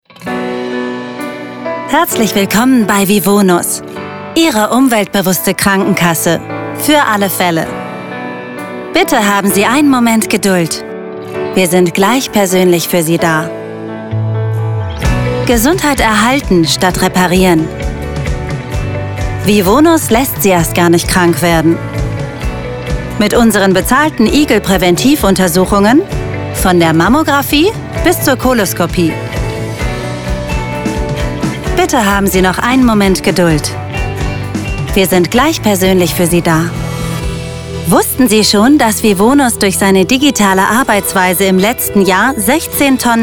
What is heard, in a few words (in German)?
Warteschleife